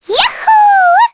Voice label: Happy, kr; voices; heroes; Lavril; kingsraid

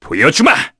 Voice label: Vox Skill, kr; heroes; Fluss; kingsraid

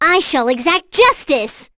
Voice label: vox, en; kingsraid; voices heroes

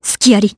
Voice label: Vox Skill, jp; Ripine; kingsraid

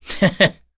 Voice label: Happy, kr; Ezekiel; kingsraid

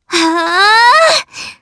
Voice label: Vox Casting jp; Frey; kingsraid

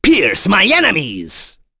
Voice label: Vox Skill, en; Baudouin; kings raid; voices heroes en

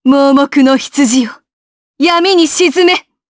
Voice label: Vox Skill jp; kingsraid

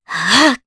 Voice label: Vox Casting, Jp; Scarlet; kings raid

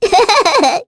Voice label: Happy; Sonia; Kings Raid

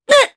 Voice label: Damage, jp; Naila; kingsraid

